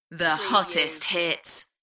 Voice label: UK female, The hottest hits; beanstalk audio

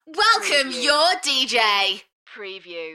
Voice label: UK female Welcome your dj Enthusiastic; beanstalk audio

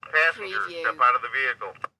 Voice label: Police Bull Horn Voice

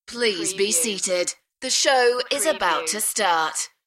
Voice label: UK female; beanstalk-audio

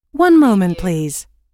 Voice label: US female phone prompt; One moment please

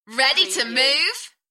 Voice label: UK female Enthusiastic; Ready to move; beanstalk audio

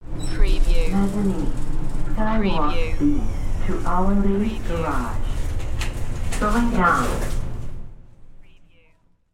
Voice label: Elevator Voice

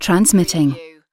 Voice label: "transmitting", UK female; lynne publishing

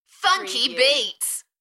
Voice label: UK female, "Funky beats", Enthusiastic; beanstalk audio

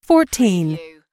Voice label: US female phone prompt; lynne publishing

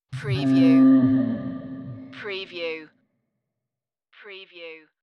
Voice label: Ghostly No

